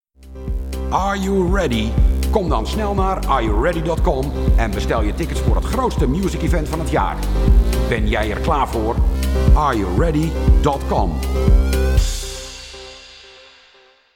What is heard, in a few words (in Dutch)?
met muziek